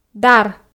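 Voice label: "дар", Komi, female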